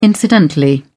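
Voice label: "incidentally", British English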